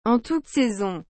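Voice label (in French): "en toutes saisons", French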